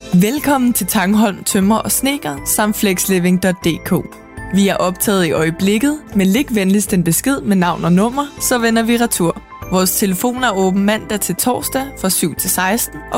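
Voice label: Danish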